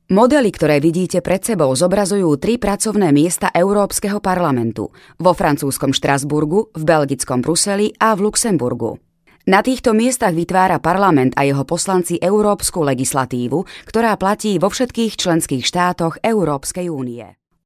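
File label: Slovak, female, narration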